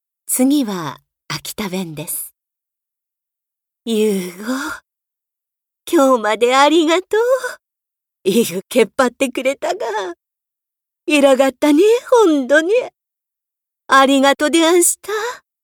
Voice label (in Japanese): 方言